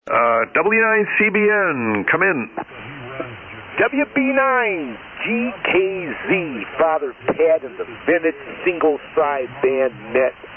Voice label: Kenwood TS-520S & MC-50 Mic; Milwaukee, Wi.

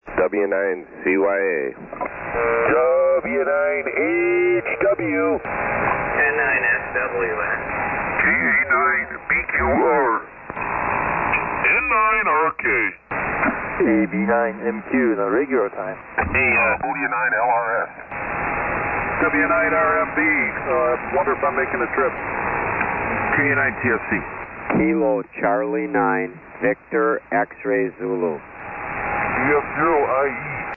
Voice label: SSB Net Late Check Ins

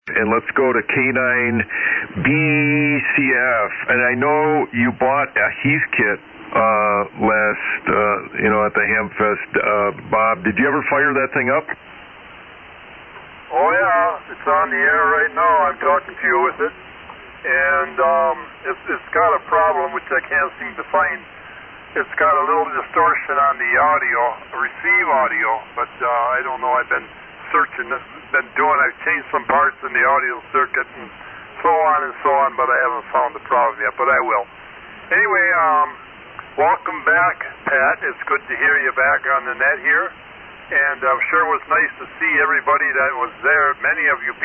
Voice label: Heathkit HW-101 D-104 Mic; Greenleaf, Wi.

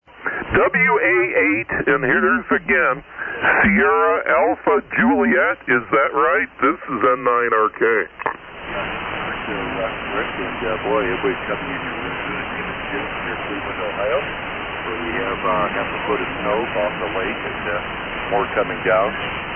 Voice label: Drake TR-4CW RIT & D-104 Mic and Amp; Willoughby, OH.

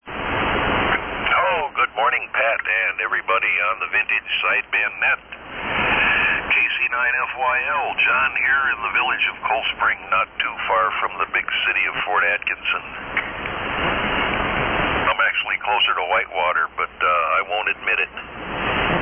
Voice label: Hallicrafters HT-32A & T.M.C. GPR-90; Fort Atkinson, Wi.

